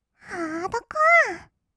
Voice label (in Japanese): ハードコア, kawaii voice; 1200 kawaii voice!!; 音楽ジャンル